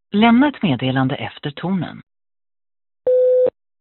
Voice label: call recording